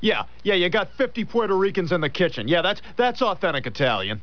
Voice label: italian; Family Guy